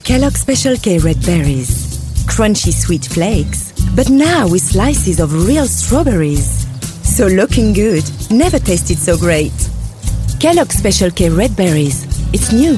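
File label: French accented English; GoLocalise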